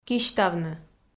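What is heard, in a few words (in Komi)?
"кисьтавны", Komi, female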